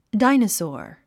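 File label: "dinosaur", English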